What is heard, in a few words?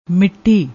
"mitti", Punjabi